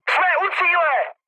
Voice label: near waypoint, speech cze, mood high; War Thunder

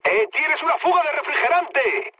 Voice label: damaged water, mood low, speech; War Thunder

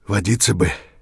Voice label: dehydrated; Escape from Tarkov

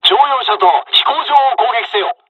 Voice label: zero, dialogs japanese; hon jpn second wave; War Thunder

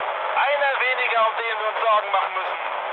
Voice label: player killed enemy, mood med, speech ge

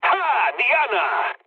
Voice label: bomb success, mood low, speech; War Thunder

